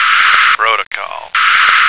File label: "protocol", American